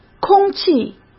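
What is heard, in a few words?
"空气", Mandarin Chinese; chinese-flashcards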